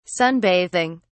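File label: "sunbathing", British English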